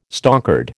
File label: "stonkered", American